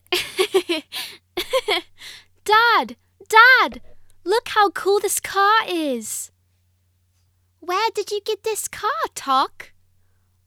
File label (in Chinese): 欢快语调 对话 英式童声